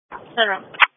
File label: Police Patrol 1 (Dispatch)